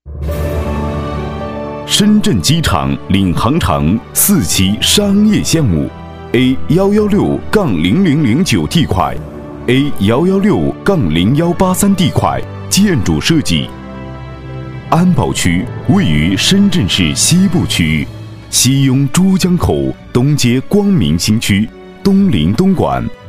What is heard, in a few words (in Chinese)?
多媒体男-磁性; 工程解说